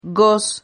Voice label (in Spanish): "gos", Silabas